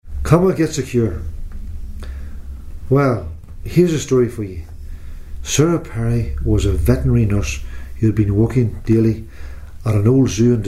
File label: dialects ni; reading passage